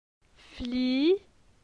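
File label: "Fli", French; phonetique; la syllabe i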